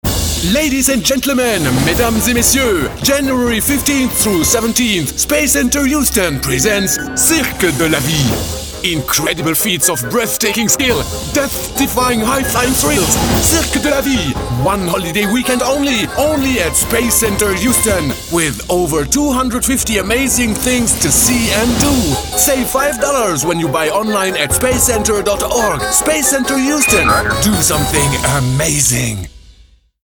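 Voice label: French accented English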